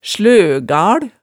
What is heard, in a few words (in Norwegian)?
"sløgal", hemsedalsmaalet